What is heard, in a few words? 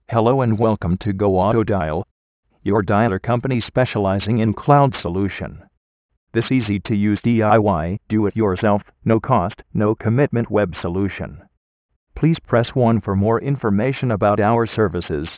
Voice Broadcast; Goautodial